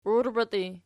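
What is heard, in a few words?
"uirbeti" nominative, Archi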